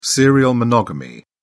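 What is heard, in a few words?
"serial monogamy", British English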